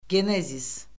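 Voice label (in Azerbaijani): "genezis", Azerbaijani; Orfoqrafiya Lüğəti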